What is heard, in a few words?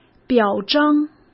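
"表彰", Mandarin Chinese; chinese flashcards; hsk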